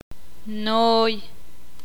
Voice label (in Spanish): "gusano", ixil